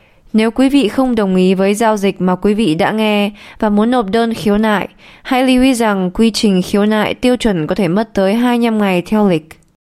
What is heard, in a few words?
Vietnamese Female Telephone Messages